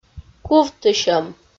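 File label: "кувтысьӧм", Komi, female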